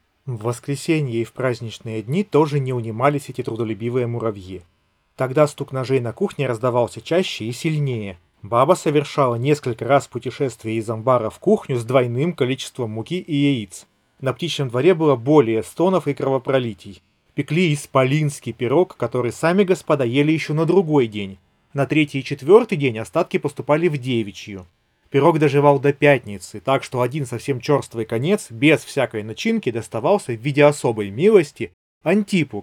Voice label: Recorder; sony wf-1000xm6